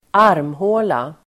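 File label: "armhåla", Swedish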